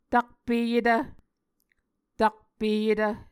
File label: "takpeyetv", Muscogee